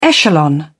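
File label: "echelon", British English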